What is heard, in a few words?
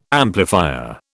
"amplifier", British